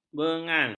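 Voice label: "bengal", Malay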